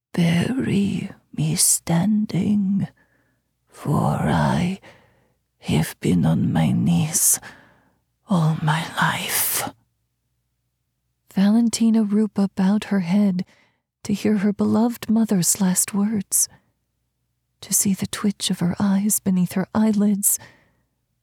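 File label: Historical Fiction Two Sisters Grieve Their Mothers Passing Eastern European accent FF, Female / Female / Female, 3rd person, Eastern European accent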